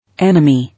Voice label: "Enemy", English